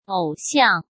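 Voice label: "偶像", Mandarin Chinese; hsk; chinese-flashcards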